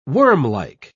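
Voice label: "wormlike", British English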